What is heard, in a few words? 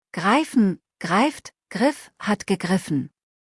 "greifen", deutsch; verben kurzliste